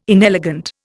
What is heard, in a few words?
"inelegant", Indian